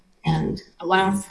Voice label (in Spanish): Voice Acting es